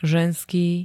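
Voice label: "ženský", Slovak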